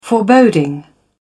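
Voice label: "foreboding", en, uk British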